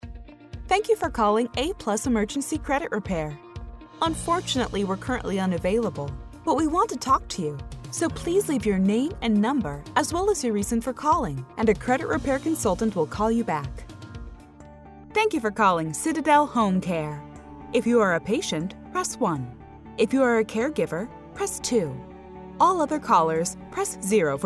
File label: ivr telephony